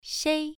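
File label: "shei" Mandarin Chinese, first tone, pronunciation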